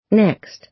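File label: "next", American English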